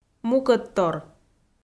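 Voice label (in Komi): "мукӧдтор", Komi, female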